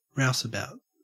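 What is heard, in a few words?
"rouseabout", Australian English; pronunciations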